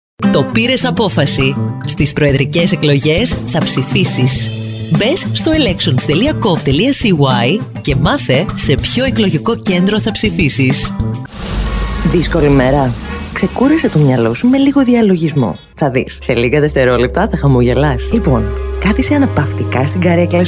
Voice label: Greek commercial